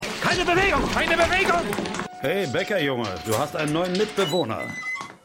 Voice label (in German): Polizist Waerter; Pushing Daisies